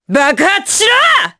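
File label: Skill, Japanese; Morrah; kingsraid; jp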